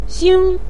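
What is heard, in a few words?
"xing", Mandarin Chinese syllable, neutral tone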